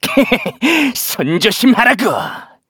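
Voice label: Vox Skill, Korean; heroes; Kibera; kings raid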